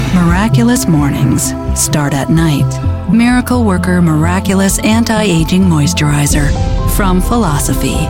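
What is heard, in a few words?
Calm Peaceful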